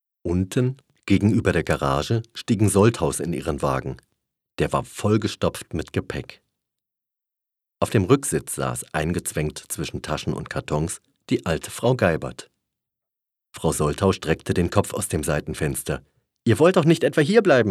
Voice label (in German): Erzaehlung